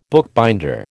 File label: "bookbinder", American